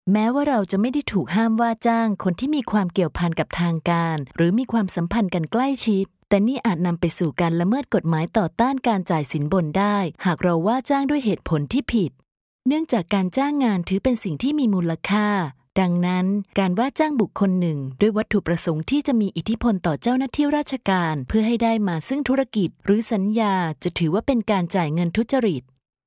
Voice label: thai female elearning

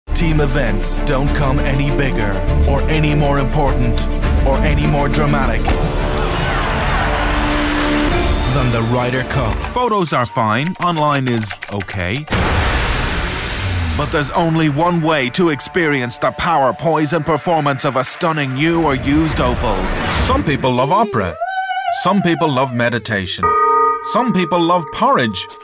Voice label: irish male commercial

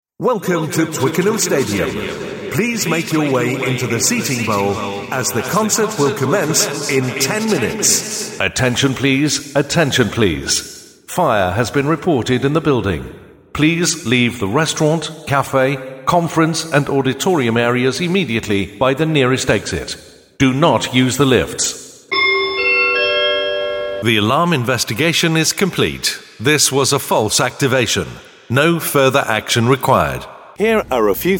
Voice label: system announcements

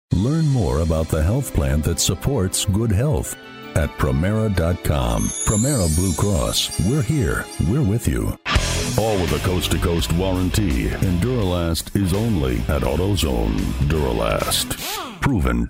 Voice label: american commercial

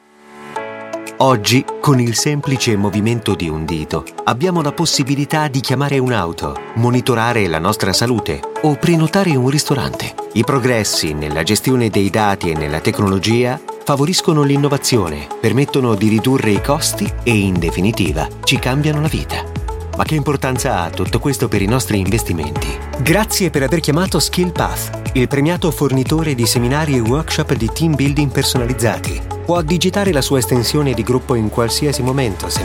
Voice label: italian voice corporate

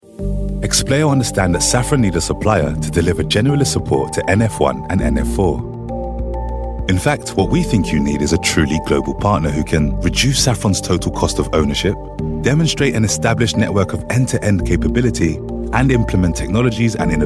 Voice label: corporate

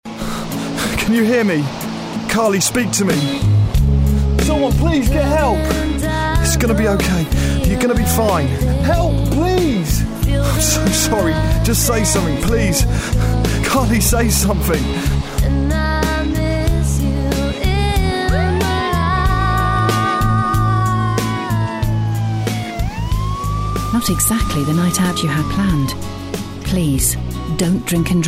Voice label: drama

